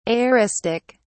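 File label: "aoristic", British English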